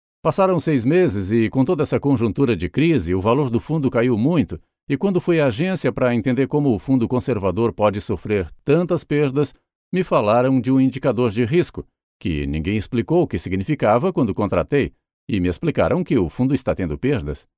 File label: locutor brasil brazilian voiceover; locutor brasileño